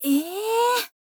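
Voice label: "ee", girl, Japanese; voice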